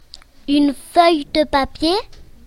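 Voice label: "paper", French; classroom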